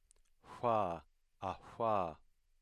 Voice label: voiceless labial velar fricative

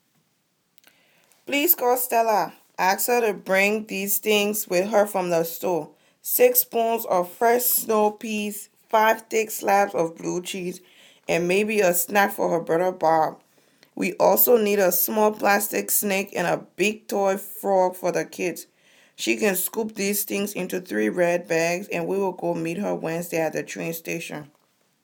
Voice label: liberian english

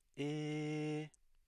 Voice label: high tone

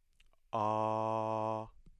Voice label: low back unrounded vowel

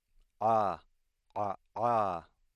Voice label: voiceless epiglottal stop

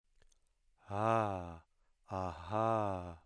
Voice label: voiced glottal fricative

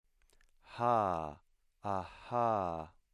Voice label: voiceless glottal fricative

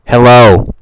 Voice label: hello clipped

